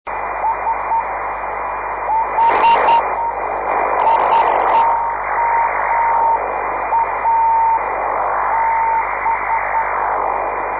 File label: qso